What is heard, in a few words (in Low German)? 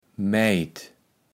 "Mäjd", kaevels platt